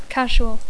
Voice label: "casual", English